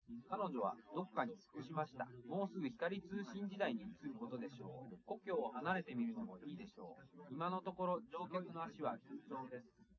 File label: male liverec; SiSEC11; underdetermined